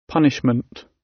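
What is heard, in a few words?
"punishment", British; Crime and punishment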